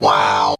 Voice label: "wow" robot